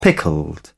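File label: "pickled", British English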